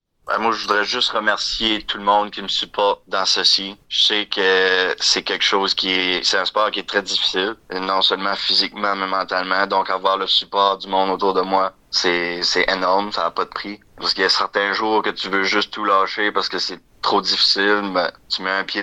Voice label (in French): reportage